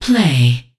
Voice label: Voices Announcer Status; unreal development kit